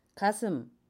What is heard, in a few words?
breast, Jeju-eo, Dialect: Jeju-si; 가슴; [ga-seum] noun breasts (female); (Korean) 가슴